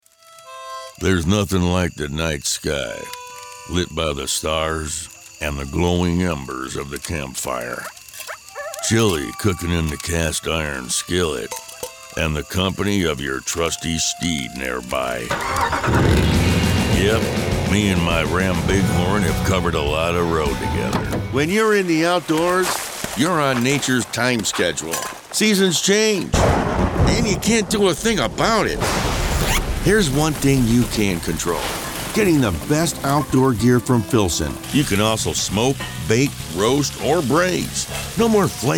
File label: Commercial